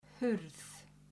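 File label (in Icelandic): "hurð", Icelandic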